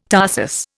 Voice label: "tarsus", Indian